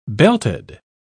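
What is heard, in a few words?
"belted", British English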